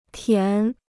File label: "填", Chinese